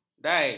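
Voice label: "dai", Malay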